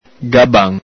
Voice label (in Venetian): "gaban", Veneto